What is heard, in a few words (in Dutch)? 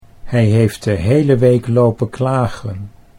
"hij heeft de hele week lopen klagen", Dutch